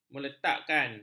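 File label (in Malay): "meletakkan", Malay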